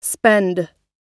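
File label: "spend", American English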